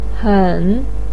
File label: "hen3", Chinese pinyin voice